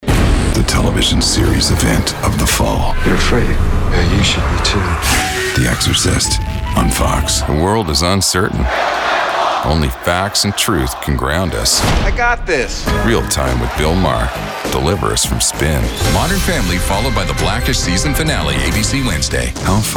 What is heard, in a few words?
Promo TV